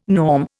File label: "norm", Indian